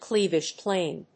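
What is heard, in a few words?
"cleavage plane", English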